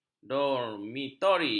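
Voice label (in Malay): "dormitori", Malay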